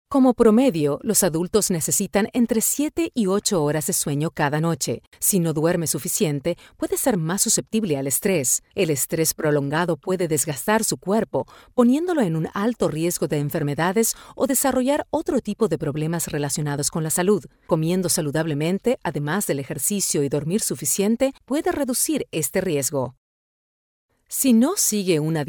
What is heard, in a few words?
latin spanish narration